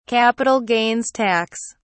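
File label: "capital gains tax", British English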